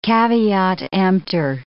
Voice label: "caveat emptor", English

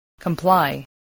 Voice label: "comply", english

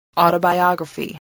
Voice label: "autobiography", english

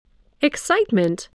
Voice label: "excitement", voice, english

